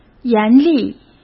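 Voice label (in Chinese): "严厉", Mandarin Chinese; hsk; chinese-flashcards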